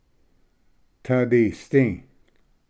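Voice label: "tvdisdi", Cherokee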